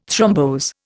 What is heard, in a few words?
"thrombose", Indian